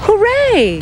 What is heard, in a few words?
"hooray", voice